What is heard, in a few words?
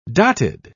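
"dotted", British English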